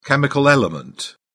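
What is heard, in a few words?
"chemical element", British English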